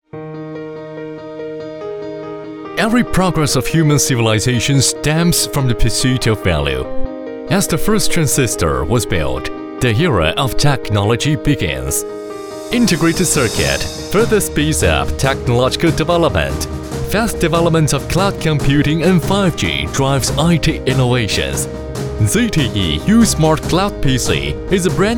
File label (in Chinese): 男 英文 手机; 中兴手机; ZTE uSmart Cloud PC